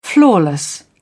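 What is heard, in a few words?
"flawless", British English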